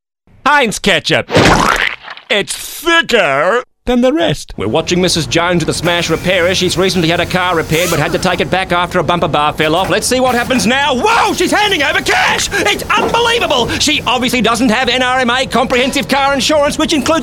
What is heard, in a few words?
character